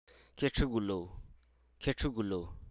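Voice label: Hruso Aka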